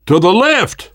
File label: world hospital, namvet, survivor voice